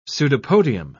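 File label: "pseudopodium", British English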